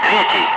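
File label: Russian loud; Ka-50; Wingman; DCS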